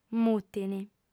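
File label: "mutint" Sardinian, female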